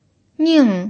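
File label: "ning" Mandarin Chinese, fourth tone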